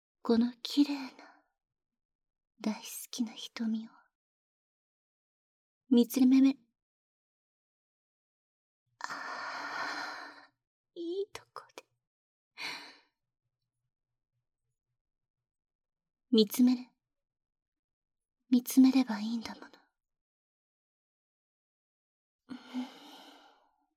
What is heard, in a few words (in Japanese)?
可愛いNGボイス